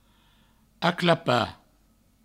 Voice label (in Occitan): "aclaparaclapa", provençal; vocabulaire fondamental du provencal